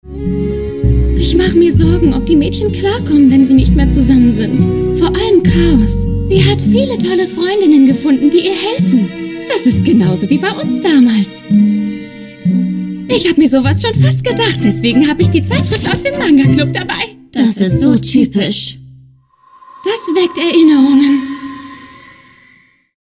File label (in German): Synchron Animé; Ririka in Comic Girls; Comic Girls-Ririka Hanazono